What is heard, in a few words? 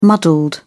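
"muddled", British English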